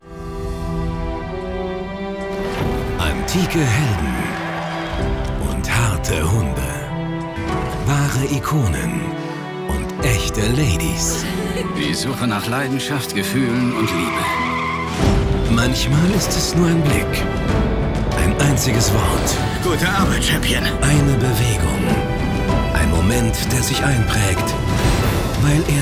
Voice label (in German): Trailer, maenner; eoTV Serienhighlights